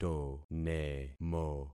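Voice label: "tonemo", concatenated syllables; Affixing experiment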